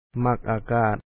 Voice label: "ma#k ?aaka3at", Lao; like fresh air

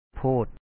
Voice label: "pho1ot", Lao; too much; ໂພດ

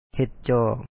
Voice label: "ເຣັດຈອກ", Lao

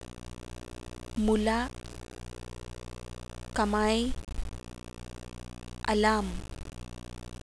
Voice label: m, Tagalog, Consonants

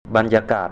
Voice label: "atmosphere", Thai

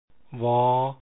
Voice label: w sound, lao consonant sounds

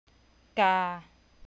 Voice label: "กา", Thai, mid tone; Mid/long vowels

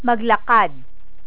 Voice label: "maglakad", Tagalog

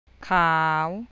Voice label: "white", thai

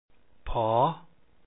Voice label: ph sound, lao

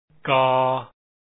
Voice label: k sound, lao alphabet sounds

